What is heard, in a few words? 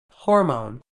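"hormone", British English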